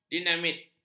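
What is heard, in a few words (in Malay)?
"dinamit", Malay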